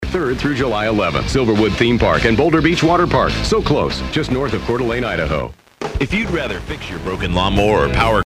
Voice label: LCL AD for theme park, FM DX; CLASSIC ROCK; Es (523 miles); KICR 102.3 COEUR D'ALENE ID 50